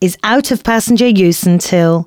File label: announcements, female